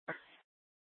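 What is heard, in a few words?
Police Department North Dispatch, scanner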